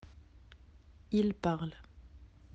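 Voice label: "parler", French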